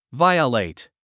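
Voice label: "violate", English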